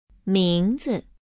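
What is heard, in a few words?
"mingzi", Chinese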